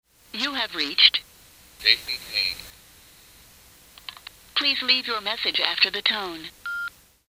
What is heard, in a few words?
cell phone message